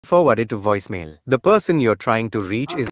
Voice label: Call recording